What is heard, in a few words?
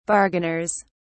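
"bargainers", British English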